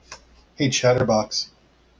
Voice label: hey chatterbox, en-us